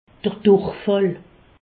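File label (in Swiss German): "durichfall", Alsatian; medecine; diarrhés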